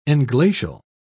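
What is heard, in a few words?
"englacial", British English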